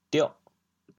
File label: "dioh8", chaoyang